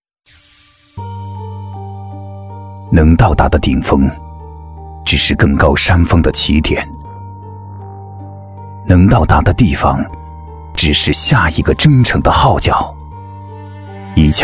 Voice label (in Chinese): 男 品牌广告